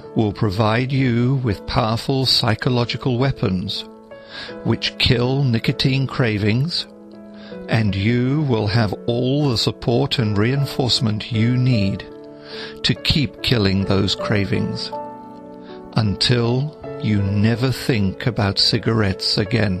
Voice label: hypno smoking